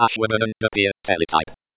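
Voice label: AshDP TTY, Computer Voice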